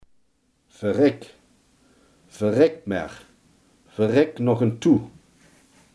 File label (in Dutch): "verrek", limburgse